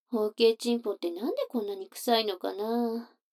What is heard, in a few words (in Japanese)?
女の子 台詞, ASMR